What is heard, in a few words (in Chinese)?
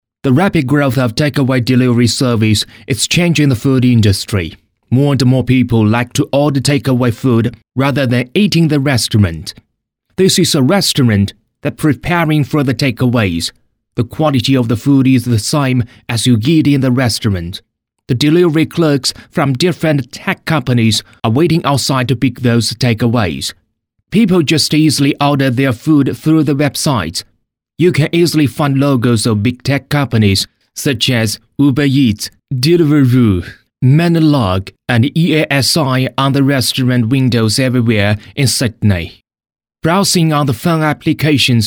男英 外语 国内英语 英式英语样音